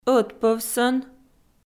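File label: "ӧтпӧвсӧн" Komi, female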